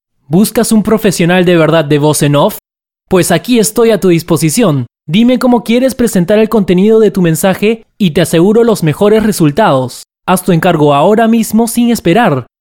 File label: spanish voice over artist